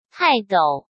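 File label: "泰斗", Mandarin Chinese; chinese-flashcards; hsk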